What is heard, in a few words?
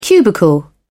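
"cubicle", British English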